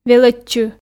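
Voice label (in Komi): "велӧдчы", Komi, female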